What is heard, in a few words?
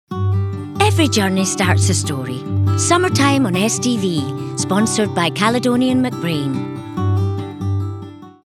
Ident; STV